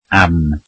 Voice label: welsh for